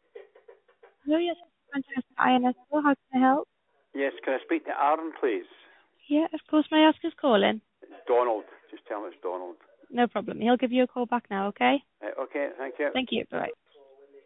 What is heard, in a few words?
call recording